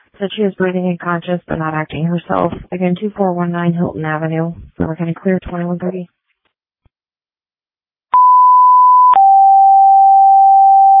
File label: Waverly Ambulance, dispatch